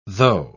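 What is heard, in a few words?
"tho'", British English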